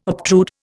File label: "obtrude", Indian